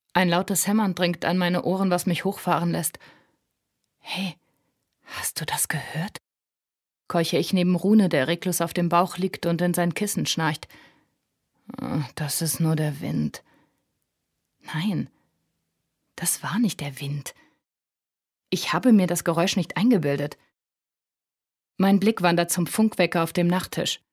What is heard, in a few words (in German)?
hoerbuch